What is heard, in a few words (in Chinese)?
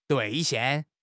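"兑现", Mandarin Chinese; HSK6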